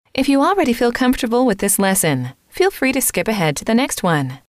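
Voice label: if you already feel comfortable with this lesson, female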